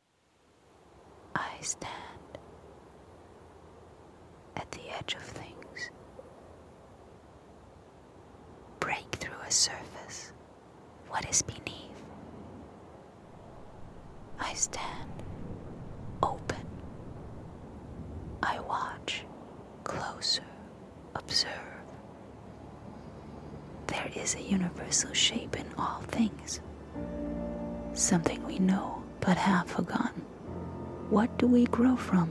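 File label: US voiceover, englisch; sprecher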